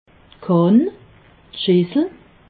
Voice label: "kann", alsace

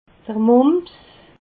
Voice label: "mumps", alsace

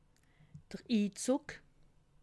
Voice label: "inzugg", alsace

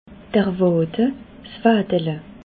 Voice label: "wade", alsace

